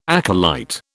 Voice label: "acolyte", British

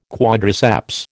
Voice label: "quadriceps", American

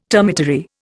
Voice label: "termitary", Indian

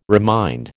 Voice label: "remind", American

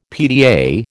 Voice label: "PTA", American

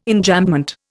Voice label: "Enjambment", Indian

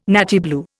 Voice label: "nattier blue", Indian

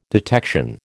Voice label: "detection", American

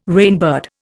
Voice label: "rainbird", Indian

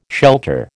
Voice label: "shelter", American

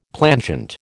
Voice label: "plangent", American

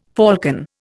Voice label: "falcon", Indian

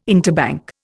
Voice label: "interbank", Indian

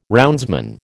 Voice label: "roundsman", American

